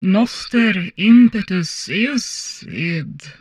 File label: humanoid female; Stellaris; humanoids